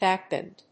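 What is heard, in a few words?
"Backbend", English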